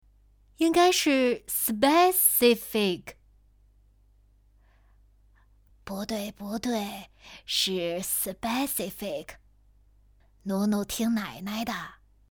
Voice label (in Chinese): 学英语 英语 妈妈 奶奶角色